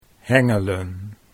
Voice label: "hengelen", Dutch